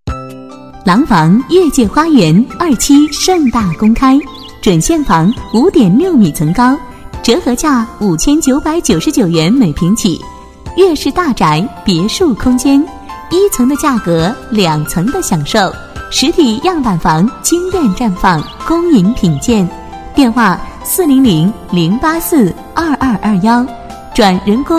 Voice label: 女 彩铃 风格 优雅品质, 国语女声配音; 廊坊跃界花园

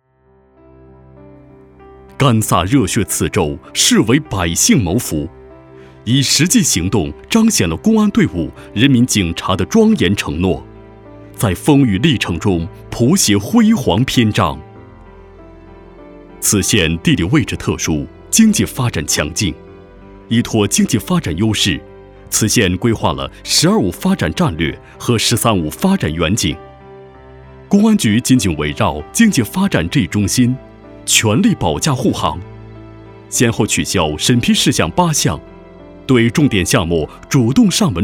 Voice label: 男 汇报片 县公安局, 国语男声配音; 某县公安局

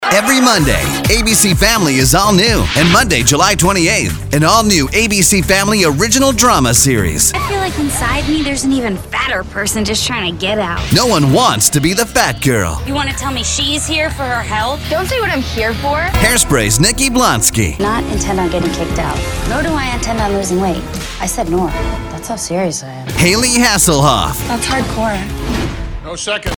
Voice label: 外籍男 电视广告; ABC FAMILY

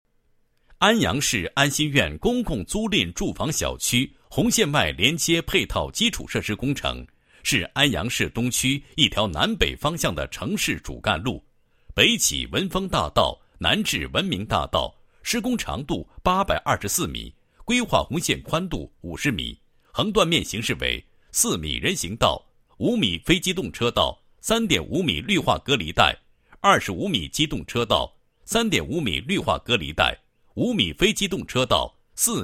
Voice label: 男 工程解说 基础设施工程概况

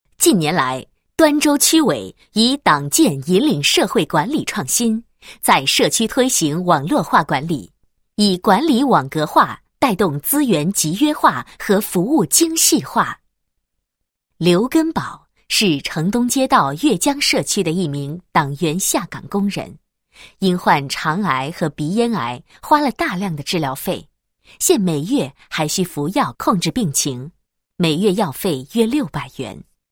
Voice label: 女 党建 社区基层党建工作; 某区的社区基层党建工作

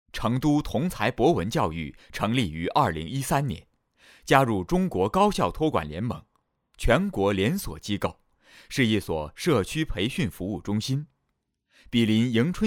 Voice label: 宣传片 干音

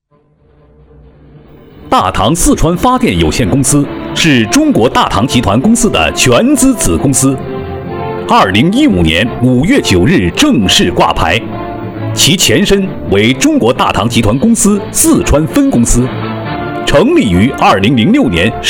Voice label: 男 电力宣传 雄浑大气

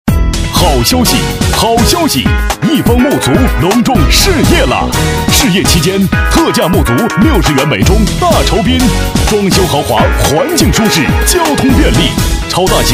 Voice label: 男 广告 沐足; 方沐足

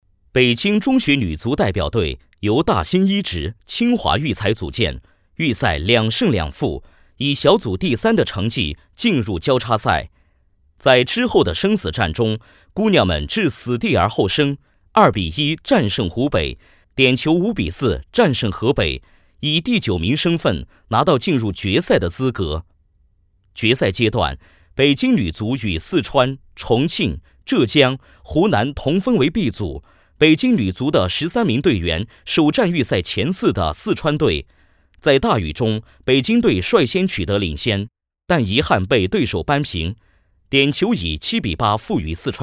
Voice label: 男 新闻 女足; 北京

